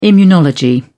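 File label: "immunology", British English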